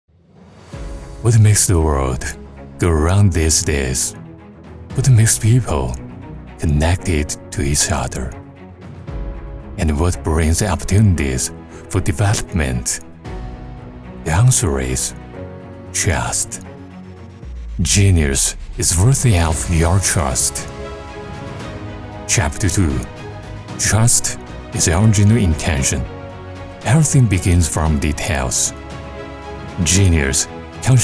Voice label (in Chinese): 英文; 智新